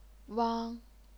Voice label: "wang1", chinese pinyin

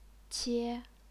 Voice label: "qie1", chinese pinyin

